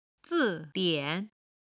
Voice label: "zi4 dian3", Chinese

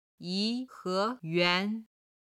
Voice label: "yi2he2yuan2", chinese

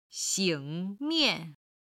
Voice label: "xing3 mian4", Chinese

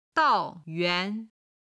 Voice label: "dao yuan", chinese

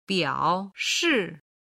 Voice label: "biao3shi4", Chinese

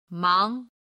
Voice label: "mang2", Chinese

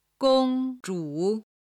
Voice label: "gongzhu", chinese

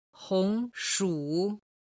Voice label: "hongshu", Chinese

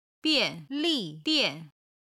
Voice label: "bian4li4dian4", Chinese

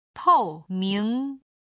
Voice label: "tou ming", Chinese

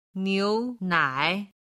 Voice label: "niu2nai3", Chinese

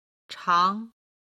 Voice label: "chang2", Chinese

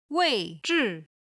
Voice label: "wei4zhi4", Chinese

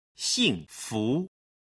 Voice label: "xingfu", Chinese

